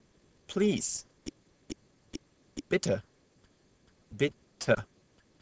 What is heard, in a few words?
German Please